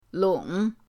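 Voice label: "long", Mandarin pinyin, third tone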